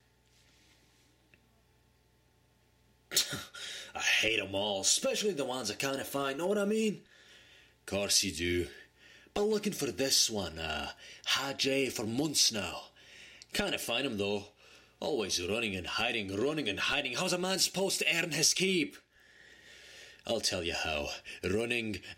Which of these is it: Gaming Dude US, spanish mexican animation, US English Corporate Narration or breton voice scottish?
breton voice scottish